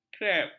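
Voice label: "krep", Malay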